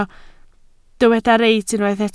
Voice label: "reit", Welsh

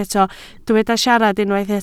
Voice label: "siarad", Welsh

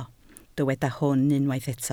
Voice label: "hwn", Welsh

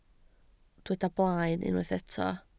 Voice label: "blaen", Welsh

